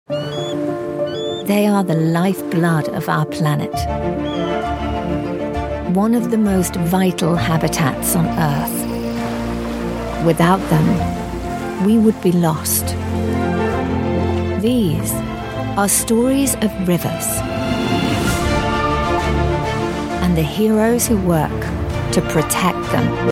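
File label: documentary, Yorkshire and Neutral English Voiceover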